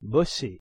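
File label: "bosser" French; pronunciation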